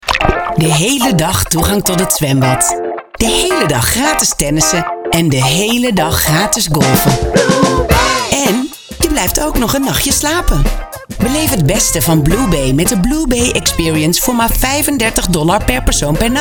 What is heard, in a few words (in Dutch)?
Radiocommercial; DolfijnFM; Blue Bay Experience